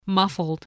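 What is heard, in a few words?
"muffled", English word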